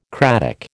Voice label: "cratic", American